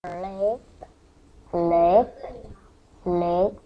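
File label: "lick", spoken word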